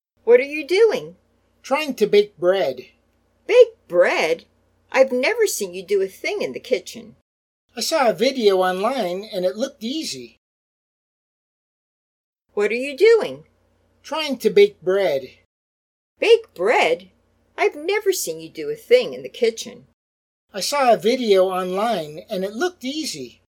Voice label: Natural Dialog